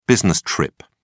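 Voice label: "business trip", British English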